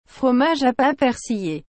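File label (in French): "fromage à pâte persillée", French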